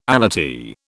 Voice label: "allottee", British